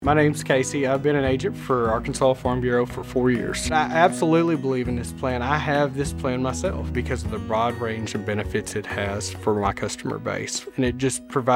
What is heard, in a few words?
Radio Spot; ARFB